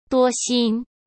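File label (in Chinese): "多心", Mandarin Chinese; hsk; chinese-flashcards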